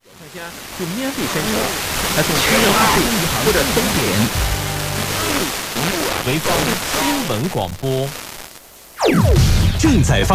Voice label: 88.1MHz Weifan News staion